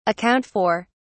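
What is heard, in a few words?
"account for", British English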